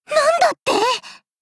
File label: angry